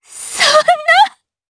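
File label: Vox Sad, Japanese; voices heroes jp; DarkFrey; kingsraid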